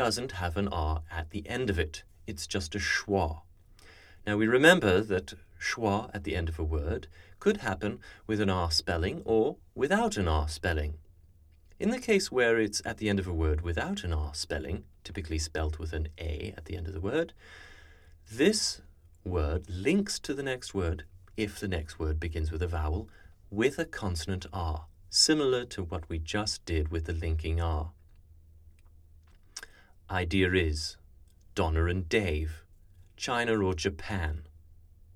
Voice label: Intrusive R, rp; speech; dialects